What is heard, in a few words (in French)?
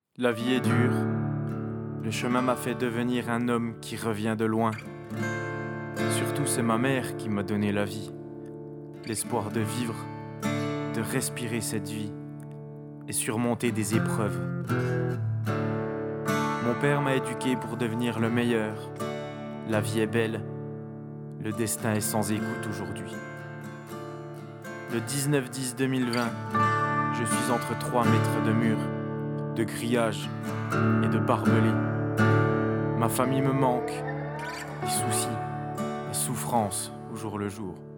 declamation spontanee